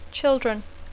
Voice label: "children", English; nens; fills